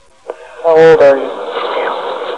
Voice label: female